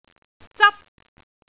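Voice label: "zap1", Cantonese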